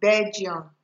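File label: "begium", Nigerian Pidgin, female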